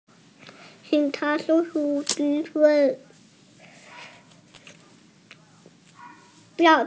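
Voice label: "conduct", Mandarin Chinese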